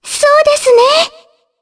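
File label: Vox Aff Japanese; Shea; kings raid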